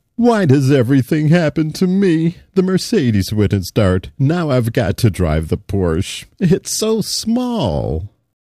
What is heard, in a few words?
sad, moods